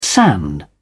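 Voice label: "sand", British English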